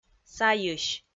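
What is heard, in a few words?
"сайысь", Komi, female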